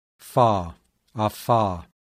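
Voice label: voiceless labiodental fricative; IPA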